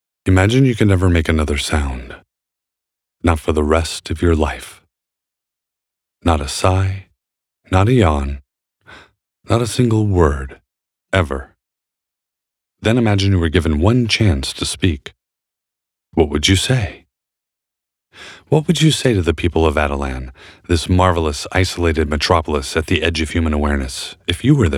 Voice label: Narration, Male voices